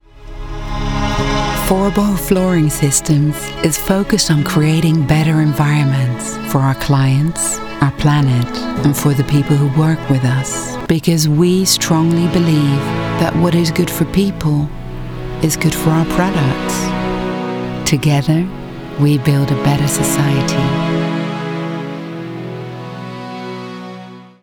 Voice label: ENG American accent; FORBO